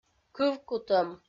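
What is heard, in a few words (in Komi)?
"кывкутӧм", Komi, female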